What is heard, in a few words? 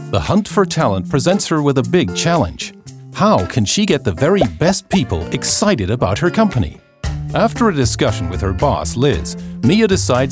Englisch neutral Recruiter Film